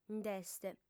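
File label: "nd'est" Sardinian, female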